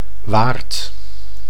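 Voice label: "waard", Dutch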